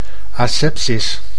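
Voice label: "asepsis", Dutch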